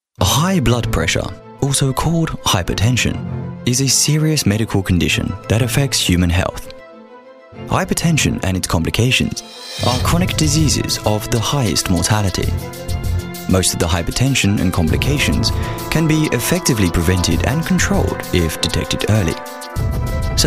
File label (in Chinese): 英式男声, 男声, 介绍类